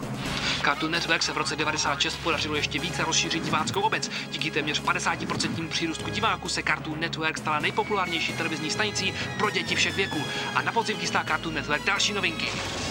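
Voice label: Czech, Characters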